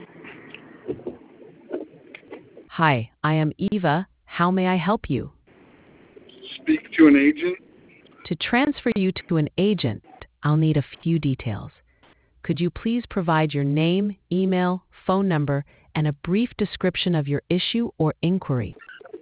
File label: conversation